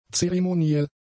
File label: "zeremoniell", German; adjectives